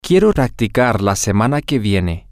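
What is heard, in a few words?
I want to practice next week, Spanish, male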